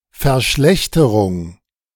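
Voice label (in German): "Verschlechterung", German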